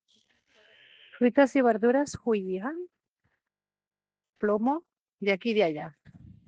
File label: Voice message